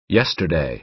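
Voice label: "yesterday", American English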